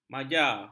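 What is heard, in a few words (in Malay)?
"maja", Malay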